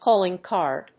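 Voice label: "calling card", English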